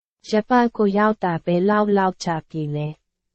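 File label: Burmese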